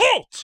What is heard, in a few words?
"halt", en; HeavenStudio; marching Orders